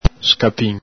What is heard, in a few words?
"scapin", Veneto